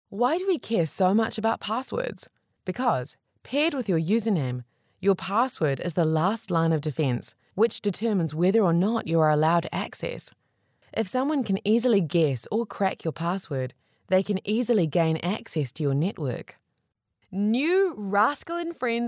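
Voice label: New Zealand, female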